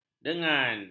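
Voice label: "dengan", Malay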